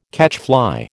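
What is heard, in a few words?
"catchfly", American English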